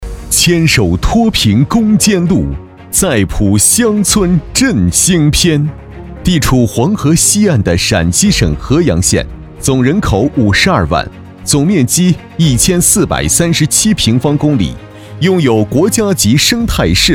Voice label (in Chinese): 男 党政汇报; 苏陕协作